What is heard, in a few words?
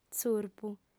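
"tzurpu", female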